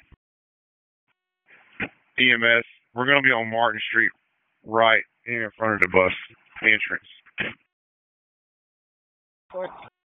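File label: Public Safety Tactical, p25; Wake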